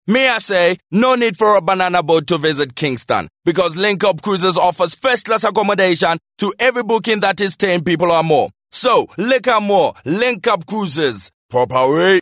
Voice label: English American Jamaican accent